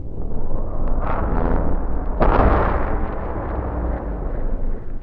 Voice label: strange talk

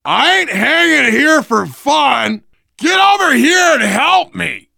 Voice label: ledge hang start, voice, biker; Left 4 Dead 2; left4dead2 dlc3; survivor

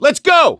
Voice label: emphatic go, voice; gambler

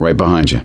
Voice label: lead on, voice; gambler

